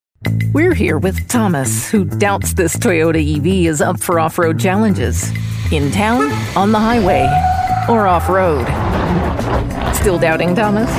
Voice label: Commercial